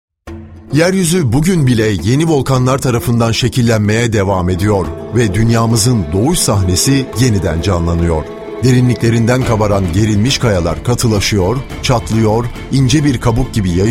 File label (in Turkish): belgesel